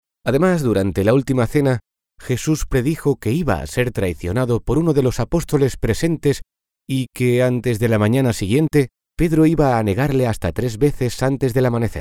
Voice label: spanish documentaries